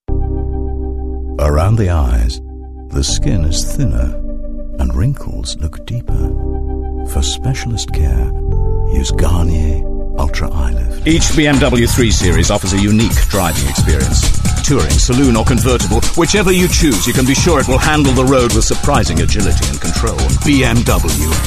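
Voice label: english scottish male commercial; voice demos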